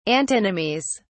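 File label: "antinomies", British English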